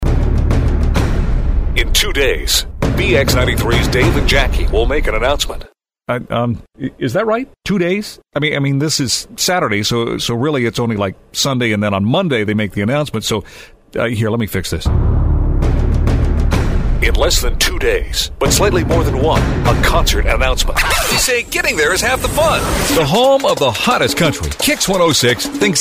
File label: Radio Imaging